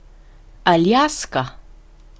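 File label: alaska, Spanish; gcompris-data; geography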